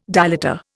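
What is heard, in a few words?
"dilator", Indian English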